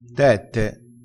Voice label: "tette", crespinese

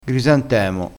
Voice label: "grisantemo", crespinese

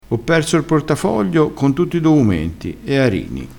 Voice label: "arini", crespinese

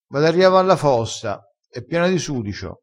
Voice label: "riava", crespinese

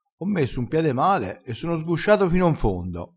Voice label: "sguscia", crespinese

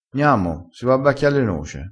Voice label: "bacchia", crespinese

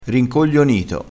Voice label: "rincoglionito", crespinese, vocabolario dialettale

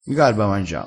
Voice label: "garba", crespinese

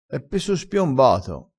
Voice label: "spiombato", vocabolario dialettale

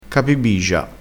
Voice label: "capibigia", crespinese

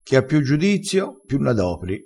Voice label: "chi ha piu", crespinese

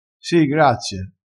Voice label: "caffeino", crespinese

